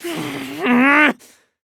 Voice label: frustration